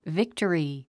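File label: "victory", English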